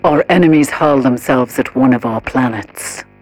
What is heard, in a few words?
advisor notification enemy troops landing, militarist; Stellaris; ethics